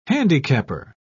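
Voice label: "handicapper", British English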